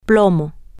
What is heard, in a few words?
grey zero, spanish, F